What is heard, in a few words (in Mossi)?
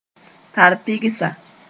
"tar-pɩgsa", moore